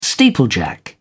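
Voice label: "steeplejack", British English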